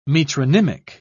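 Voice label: "metronymic", English, British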